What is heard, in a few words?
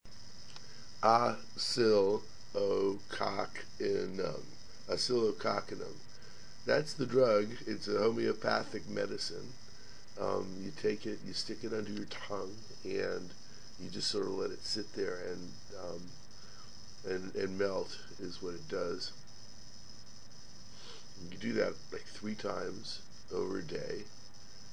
Voice Demo